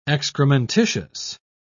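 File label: "excrementitious", British English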